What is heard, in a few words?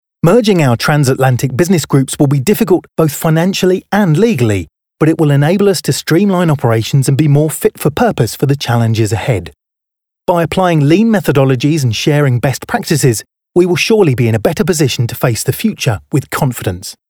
Corporate internal